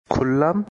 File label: Bengali, Bangladeshi Accent; Opened